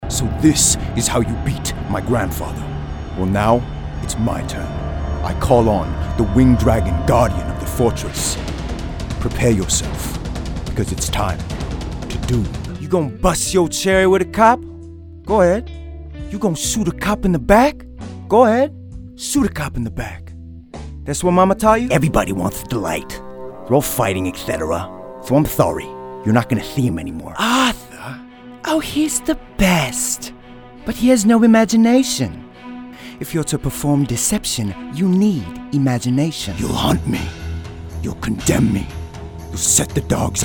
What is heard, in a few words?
Animation VO